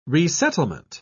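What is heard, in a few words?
"resettlement", British English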